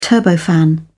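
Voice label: "turbofan", British English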